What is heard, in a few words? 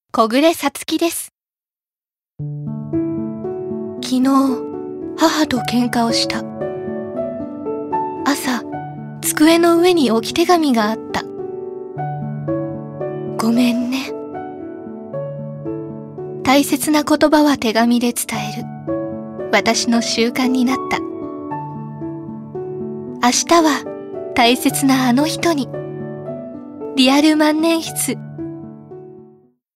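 commercial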